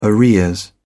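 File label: "arrears", British English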